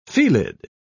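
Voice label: "felid", British English, dictionary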